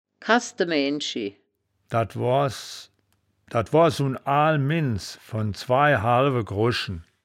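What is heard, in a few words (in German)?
"kassde maeaenschi", wittlicher woerterbuch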